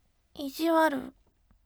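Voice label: voice ijiwaru bright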